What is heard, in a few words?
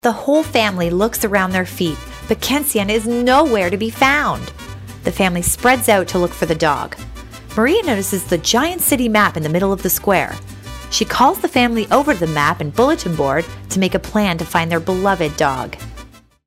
narrator